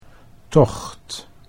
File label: "tocht", Dutch